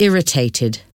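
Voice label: "irritated", English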